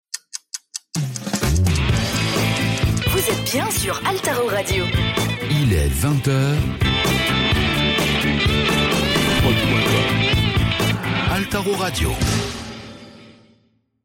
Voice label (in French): Radio Top H Cage 5sec, habillage; Altaro